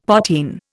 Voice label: "potheen", Indian; Dictionary